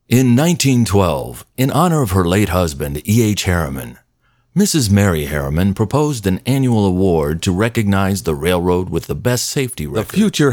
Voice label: Docu